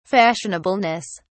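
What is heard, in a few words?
"fashionableness", British English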